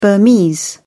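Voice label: "burmese", British English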